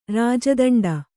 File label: "ರಾಜದಂಡ", Kannada